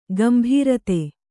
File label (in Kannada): "ಗುಂಬಾರತಿ", kannada